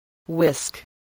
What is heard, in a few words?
"whisk", spelling